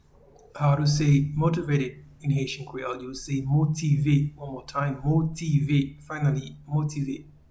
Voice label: Motivated in Haitian Creole, Motive